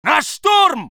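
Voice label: voice soviet infantry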